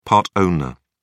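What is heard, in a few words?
"part-owner", British English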